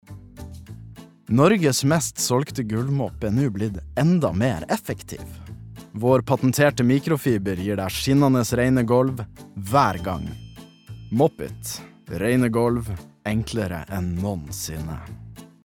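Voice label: advert, norwegian, male; reklame; norsk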